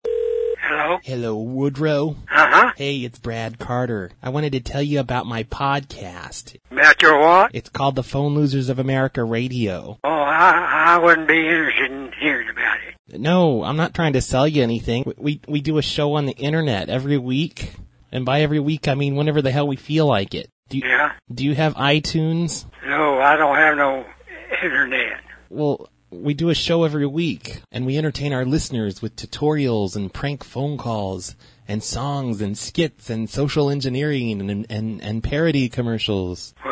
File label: promo without music